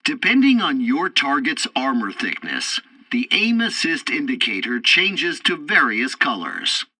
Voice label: tutorial tank arcade first target crosshairs, english; War Thunder